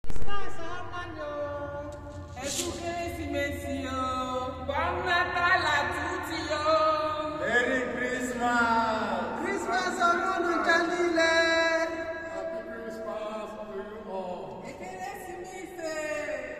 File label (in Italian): africani anglofoni augurio